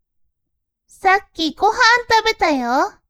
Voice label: woman